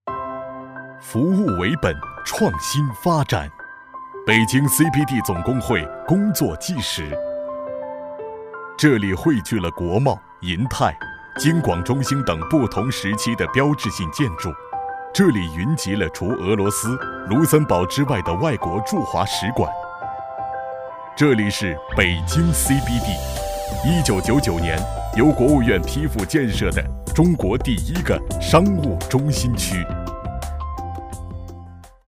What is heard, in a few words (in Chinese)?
男 专题 成熟沉稳; 北京CBD